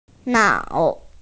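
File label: "nao3", Mandarin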